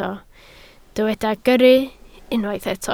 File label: "gyrru", Welsh